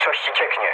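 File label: tank damaged, mood low, Polish speech; War Thunder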